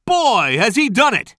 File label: commentator